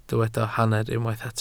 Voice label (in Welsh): "hanner", Welsh